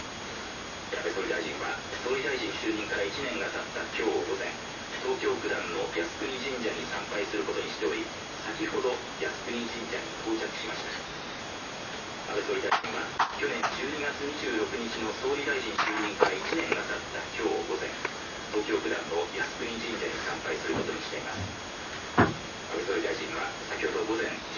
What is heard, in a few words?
NHK radio news; YASUKUNI; ABESOURI